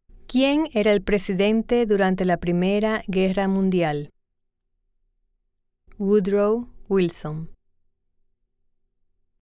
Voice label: Spanish